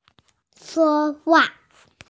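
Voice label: "speak", Mandarin Chinese, female; shuo1hua4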